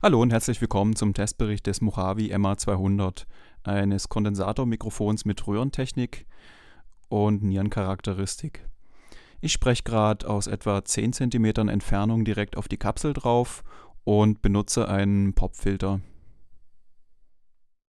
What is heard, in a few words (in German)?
mojave ma-200 stimme